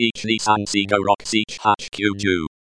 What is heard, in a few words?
espeak num count